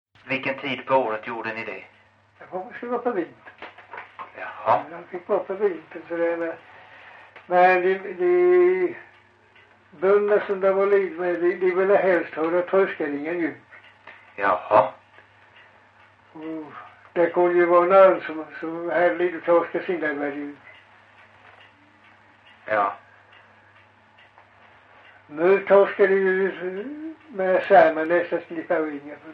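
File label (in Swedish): Farstorp Skåne, dialekter; Folkminnen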